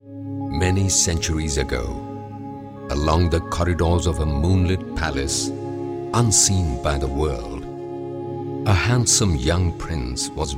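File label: Hindi English narration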